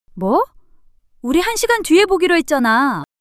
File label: surprise, monologue